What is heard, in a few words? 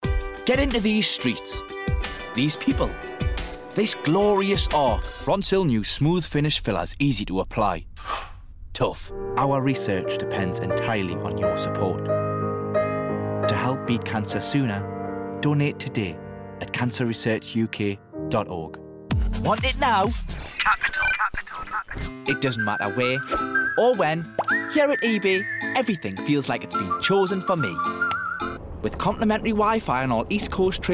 Commercials; 20s-30s; Male; Newcastle